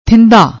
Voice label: "thindha", Punjabi; ਥਿੰਧਾ

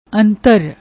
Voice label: "aunter", Punjabi; ਅੰਤਰ

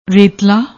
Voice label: "raitla", Punjabi

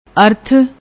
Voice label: "aarth", Punjabi; ਅਰਥ

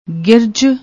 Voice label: "girj", Punjabi; ਗਿਰਝ

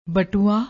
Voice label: "batooaa", Punjabi

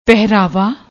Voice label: "pehraava", Punjabi

